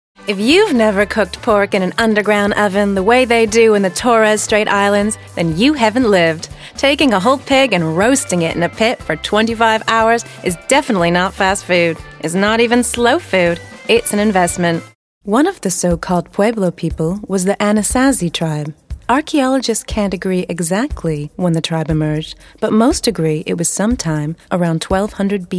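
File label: American English, narration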